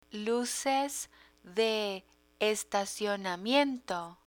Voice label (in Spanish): "luces de estacionamiento", Spanish